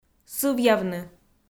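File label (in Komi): "сывъявны", Komi, female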